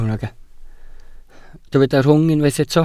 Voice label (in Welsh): "rhwng", Welsh